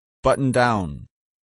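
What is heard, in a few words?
"button-down", English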